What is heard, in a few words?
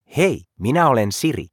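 Finnish, Finland; iOS Sounds; topi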